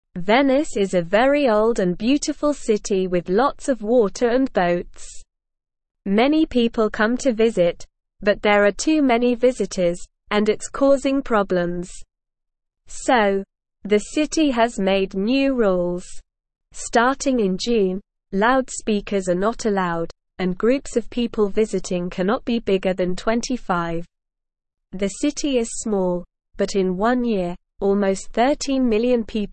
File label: Beginner SLOW Reading, English; English Newsroom